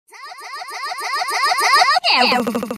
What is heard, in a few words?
breakfast reverse